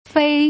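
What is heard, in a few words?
"fei", Mandarin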